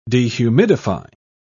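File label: "dehumidify", British English, dictionary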